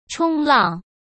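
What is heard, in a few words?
"冲浪", Mandarin Chinese; hsk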